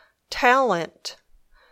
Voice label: "talent", English